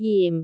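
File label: "jim4", Cantonese; googleA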